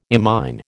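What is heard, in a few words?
"imine", American English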